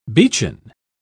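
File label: "beechen", English, British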